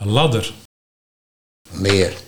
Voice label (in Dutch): "Leer", Nieuw lekkerlands dialect